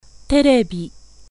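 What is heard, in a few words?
Japanese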